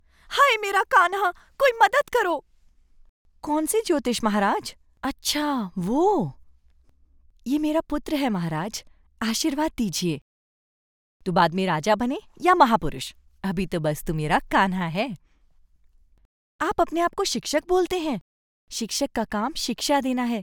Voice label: Character Voice Hindi